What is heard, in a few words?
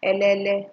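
"helele", Nigerian Pidgin, female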